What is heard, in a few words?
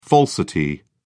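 "falsity", English, British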